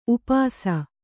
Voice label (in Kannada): "ಉಪಾಸಿ", Kannada